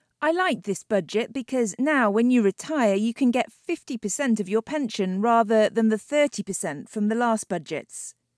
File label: FEMALE